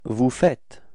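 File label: "faire vous", French; frans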